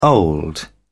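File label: "old", British English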